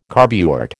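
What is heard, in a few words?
"carburet", American English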